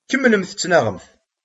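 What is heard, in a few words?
"Kemmlet ttnaɣet.", Kabyle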